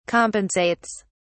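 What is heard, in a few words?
"compensates", British English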